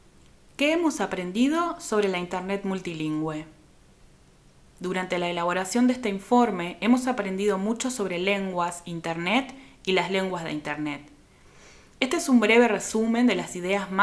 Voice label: Spanish; STIL Summary ES